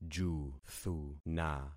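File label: "juthuna", concatenated syllables; Affixing experiment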